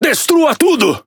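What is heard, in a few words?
"Caramba", Brazilian Portuguese; speech; quick commands; World Of Warships; PT BR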